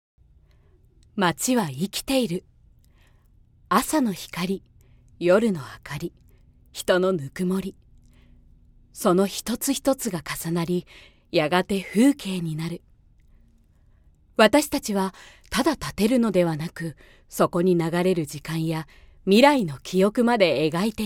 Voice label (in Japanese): 企業ナレーション